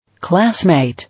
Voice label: "Classmate", English

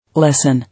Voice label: "Lesson", English

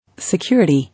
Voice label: "Security", English

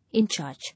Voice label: "In charge", English

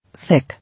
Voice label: "Thick", English

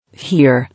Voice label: "Hear", English

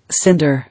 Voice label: "Cinder", English

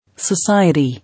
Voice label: "Society", English

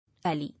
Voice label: "Alley", English

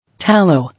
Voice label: "Tallow", English

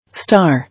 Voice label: "Star", English

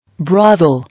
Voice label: "Brothel", English

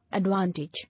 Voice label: "Advantage", English

